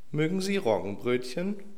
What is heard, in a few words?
fall rise